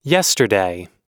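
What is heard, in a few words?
"yesterday", English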